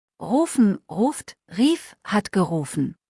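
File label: "rufen", German; verben kurzliste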